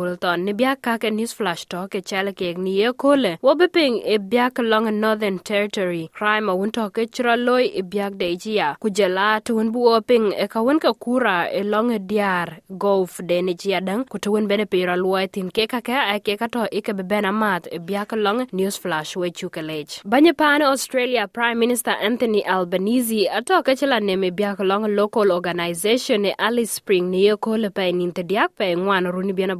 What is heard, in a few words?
dinka; SBS Dinka News Flash 30/04/2024